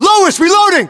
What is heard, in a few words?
inform reloading, battlechatter, English, US